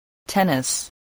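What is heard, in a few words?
"tennis", English word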